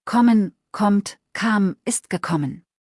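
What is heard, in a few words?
"kommen", German; verben kurzliste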